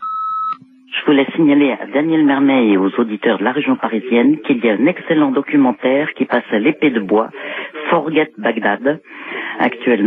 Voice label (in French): repondeur; Documentaire Irak